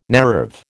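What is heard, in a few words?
"naïve", American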